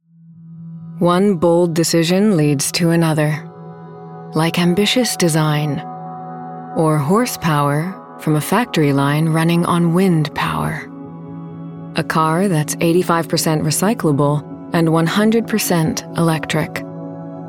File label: Commercial; BMW